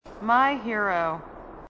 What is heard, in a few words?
female marine, sassy